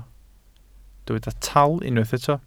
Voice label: "taal", Welsh

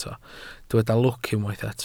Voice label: "lwc", Welsh

